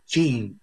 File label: Voice Acting, es